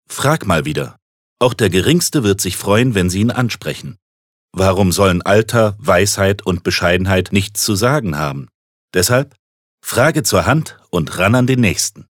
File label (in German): Werbung Freundlich